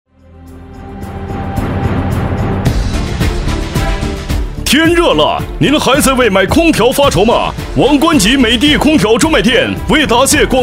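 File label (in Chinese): 促销, 男; 天热了，您还在为买空调发愁吗？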